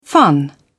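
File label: "fun", British English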